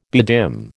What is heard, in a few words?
"bedim", American